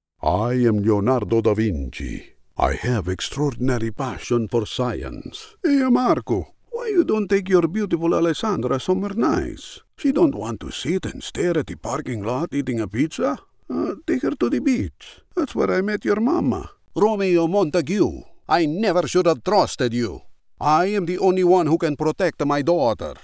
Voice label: Neutral Italian accent